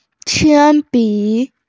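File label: "qian bi", Mandarin Chinese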